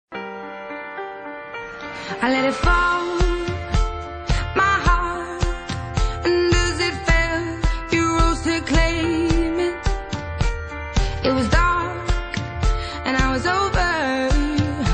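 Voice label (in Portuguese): MULHER FUNCIONARIO female, telemensagem; telemensagem dia das mulheres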